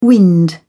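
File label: "wind", British English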